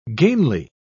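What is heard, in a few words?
"gainly", British English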